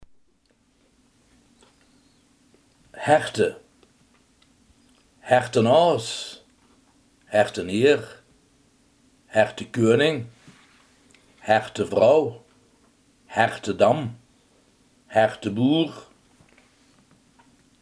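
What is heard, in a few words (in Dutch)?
harten, Limburgse gesproken woordenboeken; harten, herte; Hertenaos, hertenhieër/hertekuuening, hertevrouw/hertedam, herteboer.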